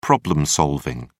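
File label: "problem solving", British English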